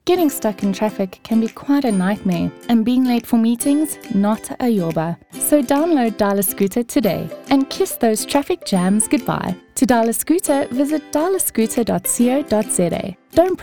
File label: Conversational